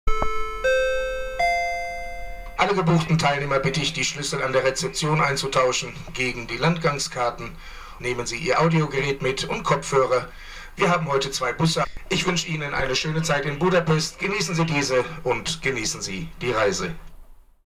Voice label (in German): Lautsprecheransage; OTon; Genuss-Donauschiffsreise – Von Passau bis Budapest